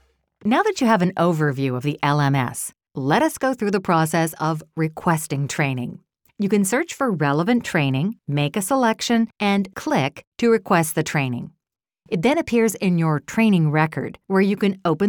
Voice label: eLearning